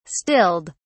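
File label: "stilled", British English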